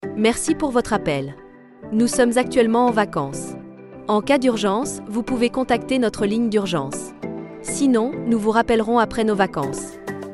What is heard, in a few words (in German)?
urlaub ansage